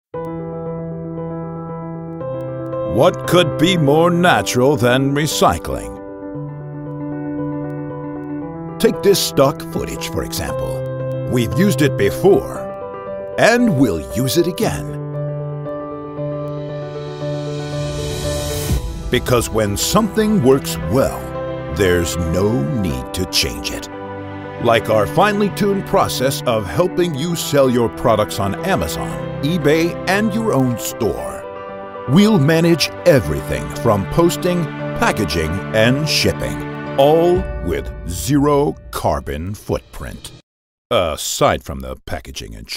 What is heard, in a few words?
TV Commercials; BUITENLANDSE VOICEOVER; stemacteur